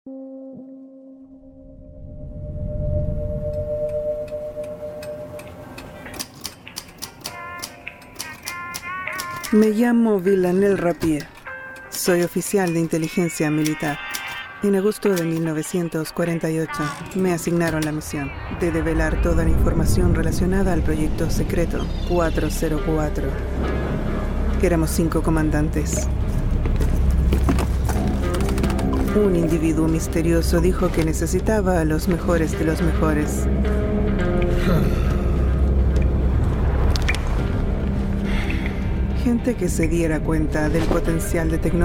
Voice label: spanish latin american video games

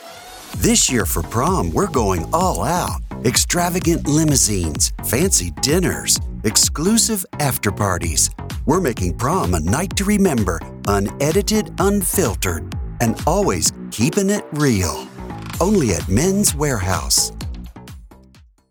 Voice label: english american promos

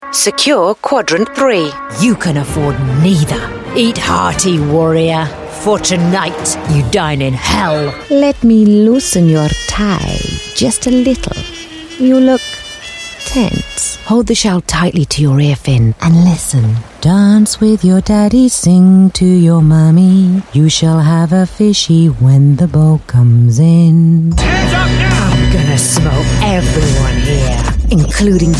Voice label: english british animation

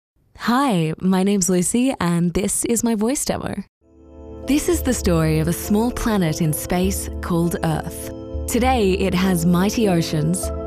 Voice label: english australian commercial; Victoria, Australia

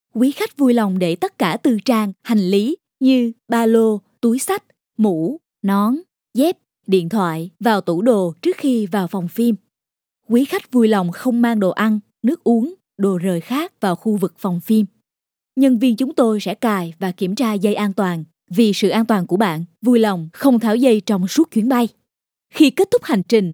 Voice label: vietnamese audio guides

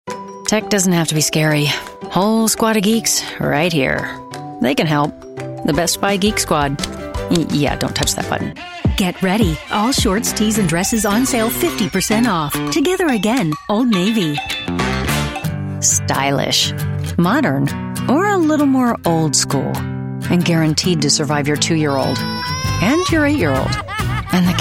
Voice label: english american commercial, Firm Voice; American English voice over professional

